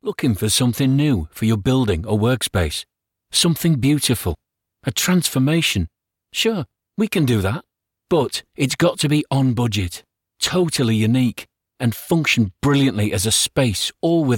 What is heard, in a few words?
Yorkshire Midlands accent